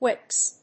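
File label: "Whips", English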